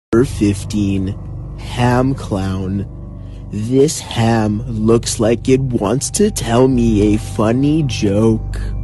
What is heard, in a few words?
clown chills funny voice haha